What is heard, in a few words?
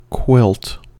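"quilt", US English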